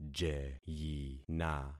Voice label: "jeyina", concat syllables; Affixing experiment